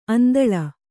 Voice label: "ಆಂದೋಳ", Kannada

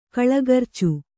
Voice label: "ಕಲಗರ್ಚು", Kannada

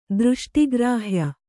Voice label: "ದೃಷ್ಟಿಗ್ರಾಹ್ಯ", Kannada

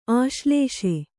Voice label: "ಆಶ್ಲೇಷೆ", Kannada

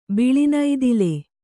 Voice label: "ಬಿಳಿನೈದಿಲೆ", Kannada

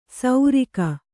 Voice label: "ಸೌರಿಕ", Kannada

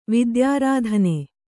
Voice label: "ವಿದ್ಯಾರಾಧನೆ", Kannada

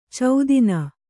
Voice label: "ಛೇದನ", Kannada

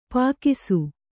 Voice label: "ಪೀಕಿಸು", Kannada

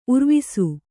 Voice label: "ಉರ್ವಿಸು", Kannada

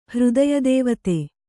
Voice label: "ಹೃದಯದೇವತೆ", kannada

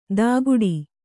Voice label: "ತಾಗುಡಿ", Kannada

